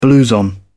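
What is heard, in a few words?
"blouson", British English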